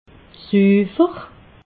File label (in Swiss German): "sufer", alsace